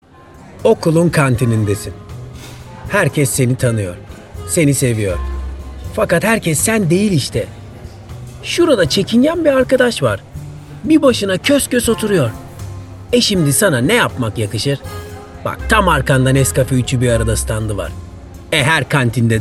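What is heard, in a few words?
Turkish Commercial; GoLocalise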